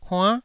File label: "huann9", taiwanese